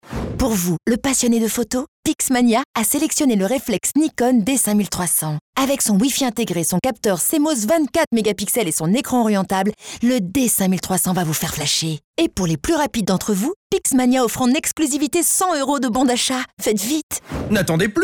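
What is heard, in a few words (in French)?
promo, voix off; Pixmania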